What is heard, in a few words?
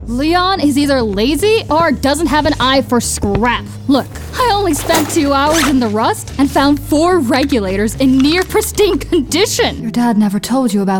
Character